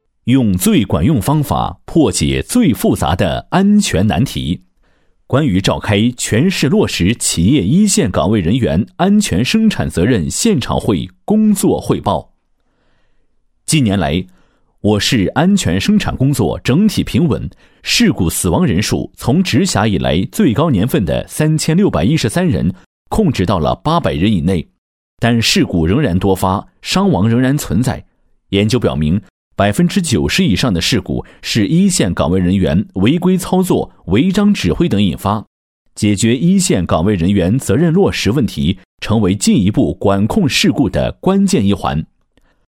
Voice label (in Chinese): 男 汇报片 浑厚大气 汇报